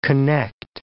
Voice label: "connect", English